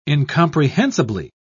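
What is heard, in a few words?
"incomprehensibly", British English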